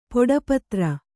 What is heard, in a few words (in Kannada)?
"ಪೊಡಪತ್ರ", Kannada